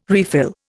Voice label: "refill", Indian